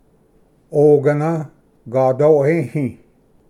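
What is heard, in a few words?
"ogana gadoehi", Cherokee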